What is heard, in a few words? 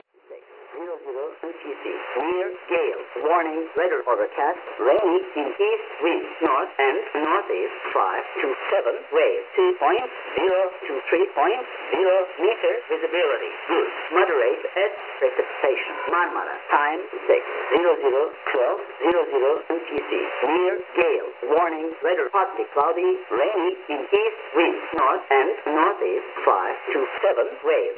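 Shannon VOLMET English USB; 13264,0; IRL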